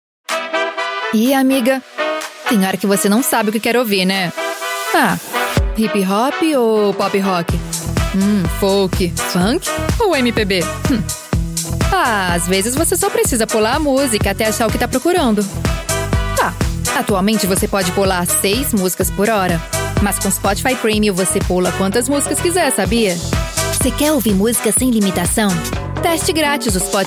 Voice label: brazilian voice natural